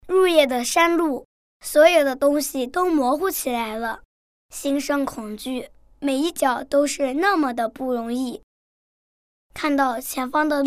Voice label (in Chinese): 女 真男童声 散文